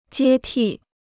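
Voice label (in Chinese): "接替" Chinese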